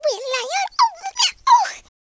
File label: angry, Southern Belle; speech